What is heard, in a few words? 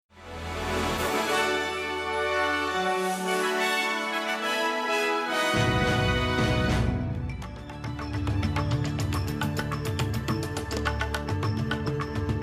gujarati newsflash; sbs